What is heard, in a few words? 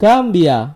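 gambia, Indonesian; gcompris-data; geography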